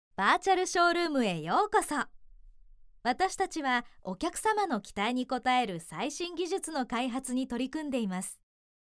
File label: japanese commercial